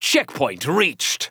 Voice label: Announcer Match Payload Checkpoint; First Mate

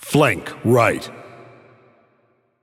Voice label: Announcer Attack

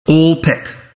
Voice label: announcer type all pick; dota2

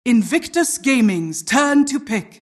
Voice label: announcer team pick; Dota 2

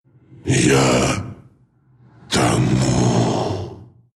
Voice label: death, ru; Dota 2

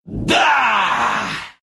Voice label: win, ru; Clinkz

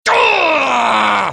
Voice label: bloodseeker pain

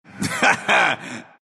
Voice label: laugh, ru; Dota 2

